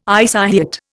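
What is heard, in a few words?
"isohyet", Indian English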